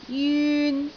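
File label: "jyun3", Cantonese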